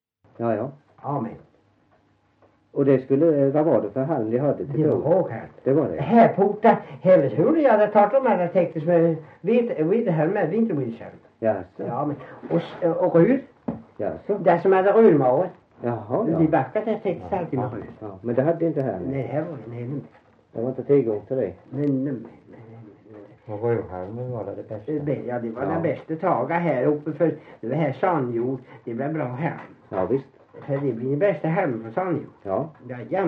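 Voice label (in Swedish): Värö Halland, dialekter; Folkminnen; Svenska sagor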